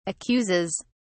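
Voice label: "accuses", British English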